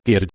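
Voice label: "kerd", Proto-Indo-European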